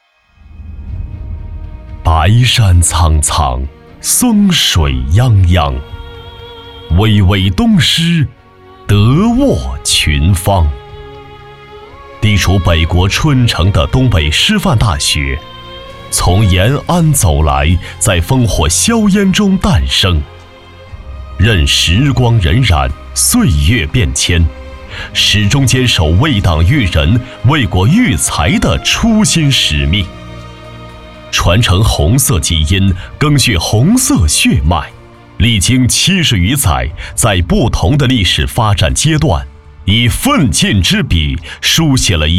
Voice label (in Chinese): 男 专题 沉稳 大气